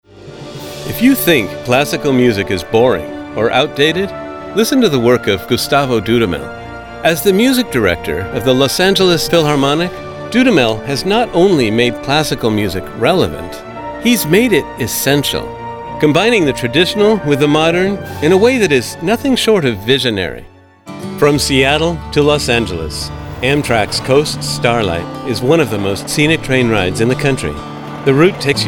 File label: Voice Over Actor Narration